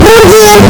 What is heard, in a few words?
burgir bassboost